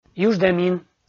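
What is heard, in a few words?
"юждӧмин", Komi, male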